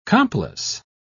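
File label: "complice", British English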